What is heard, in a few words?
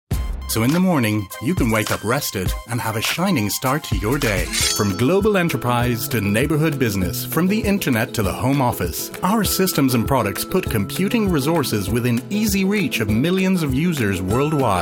english language commercial voice